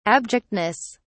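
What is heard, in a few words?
"abjectness", British English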